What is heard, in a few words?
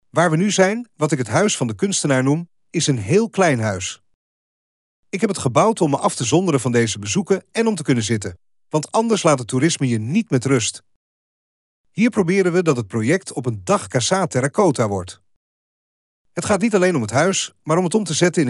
DUTCH; artist's house; Casa Terracota